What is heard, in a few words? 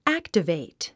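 "activate", English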